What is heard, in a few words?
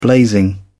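"blazing", British English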